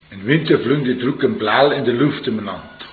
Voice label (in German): nordbairisch